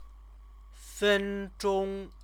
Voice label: "fenzhong", Chinese; Chinese lessons

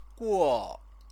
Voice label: "guo", Chinese

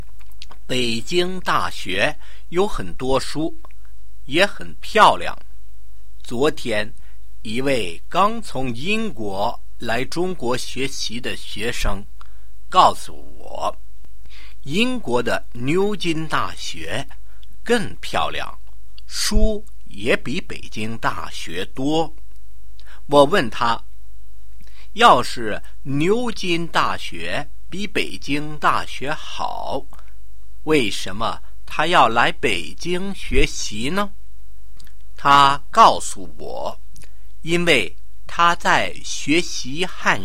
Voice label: Listening Comprehension, Chinese

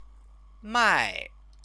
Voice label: "mai", Chinese; 卖; sell; verb

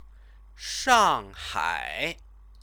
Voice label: "shanghai", Chinese; Chinese lessons

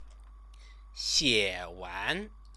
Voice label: "xiewan", Chinese

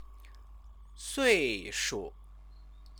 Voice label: "suishu", Chinese

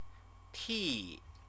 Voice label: "ti", Chinese

